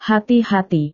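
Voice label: "hati hati", Indonesian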